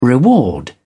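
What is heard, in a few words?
"reward", British English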